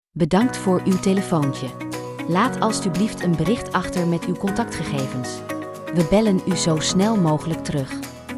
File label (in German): premium ansage